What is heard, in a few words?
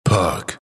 announcer pick puck; dlc bastion